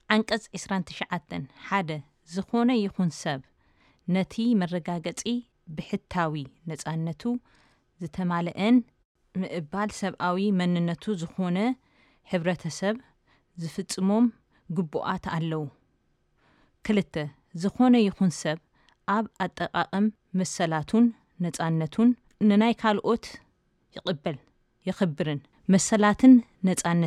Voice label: Tigrinya

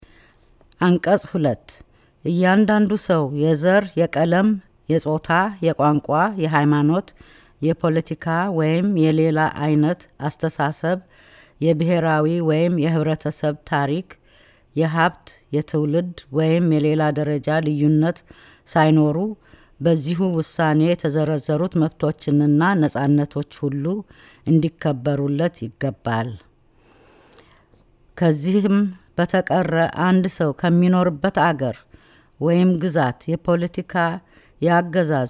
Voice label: Amharic

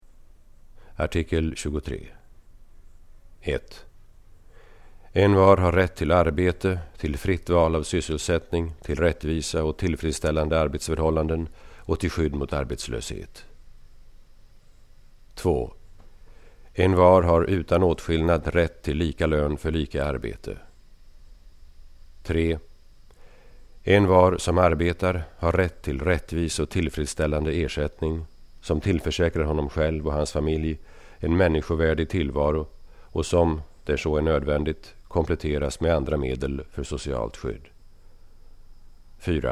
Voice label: Swedish

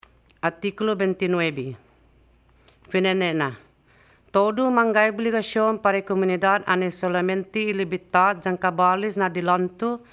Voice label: Chamorro

